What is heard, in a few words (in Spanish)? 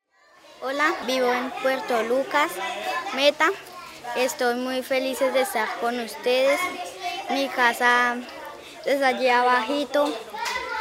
Mensaje nino; mi voz es tu voz; Audios de presentacion ninos y ninas de la obra musical